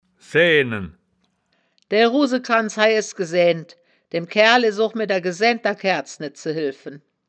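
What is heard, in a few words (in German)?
"saeaenen", Wittlicher Wörterbuch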